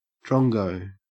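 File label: "drongo", Australian English; pronunciation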